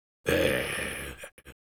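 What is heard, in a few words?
negative vocalization, Spanish; Engineer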